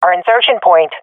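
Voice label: swat female; loc our spawn; Counter Strike; CS:GO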